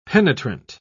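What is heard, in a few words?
"penetrant", English, British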